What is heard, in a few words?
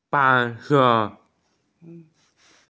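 "white", Mandarin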